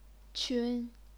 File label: "qun1", chinese pinyin